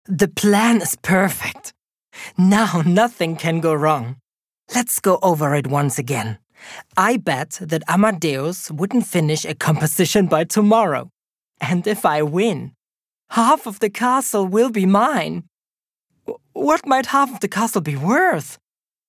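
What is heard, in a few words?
German English, male, character, accent of germany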